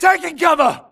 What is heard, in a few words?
grunt grenade response; imc; Titanfall 2